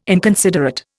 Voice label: "inconsiderate", Indian